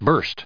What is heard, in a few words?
"burst", us